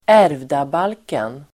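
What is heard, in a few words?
"ärvdabalken", Swedish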